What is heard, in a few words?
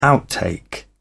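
"outtake", English, British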